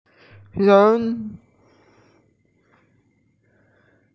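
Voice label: "yun2", Mandarin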